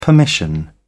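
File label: "permission", British English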